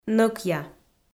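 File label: "нӧкъя", Komi, female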